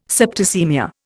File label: "septicaemia", Indian